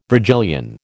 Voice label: "Virgilian", American

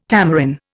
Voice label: "tamarin", Indian

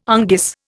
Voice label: "unguis", Indian

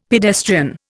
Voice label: "pedestrian", Indian; Dictionary

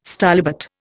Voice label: "stylobate", Indian

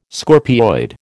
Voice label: "scorpioid", American

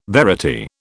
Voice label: "verity", British

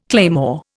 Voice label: "claymore", Indian

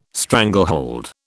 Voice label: "stranglehold", British

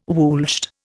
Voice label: "woolshed", Indian

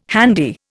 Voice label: "handy", Indian

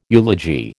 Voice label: "eulogy", American

